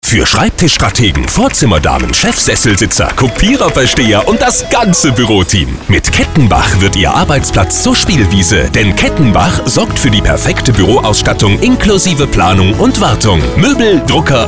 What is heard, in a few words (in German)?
Radiospot